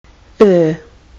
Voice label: er as in herb, kiwi english

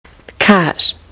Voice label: "cart", kiwi english

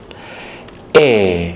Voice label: e as in weta, kiwi english

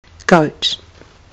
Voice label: "goat", kiwi english

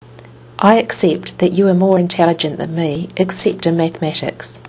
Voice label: i accept that you are, kiwi english

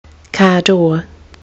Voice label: "car door", kiwi english

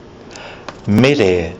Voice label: "mere", kiwi english

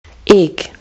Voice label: "egg", kiwi english

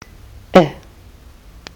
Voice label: schwa, kiwi english

